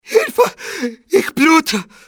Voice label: im bleeding, male; XBOX